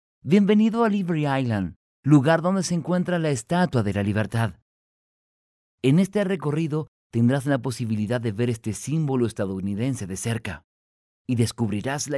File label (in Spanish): locutor nativo locucao espanhol neutro